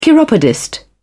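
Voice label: "chiropodist", English, British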